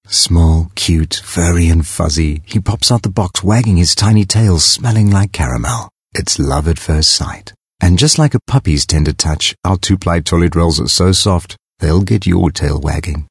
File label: English Soft Sell